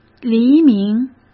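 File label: "黎明", Mandarin Chinese; chinese-flashcards; hsk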